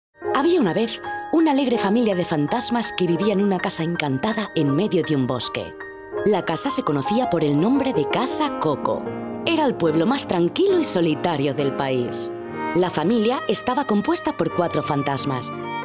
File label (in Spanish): Cuento castellano